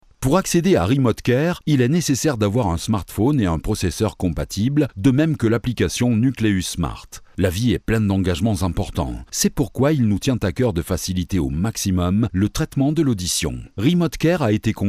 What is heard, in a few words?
locutor frances french voiceover